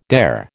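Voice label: "dare", American English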